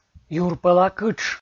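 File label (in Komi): "юрпӧла кытш" Komi, male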